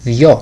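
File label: "dyo", Greek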